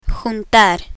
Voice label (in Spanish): "juntar", Spanish; spanish alphabet